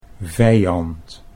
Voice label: "vijand", Dutch